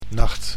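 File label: "nacht", Dutch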